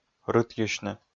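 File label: "рытйысьны", Komi, male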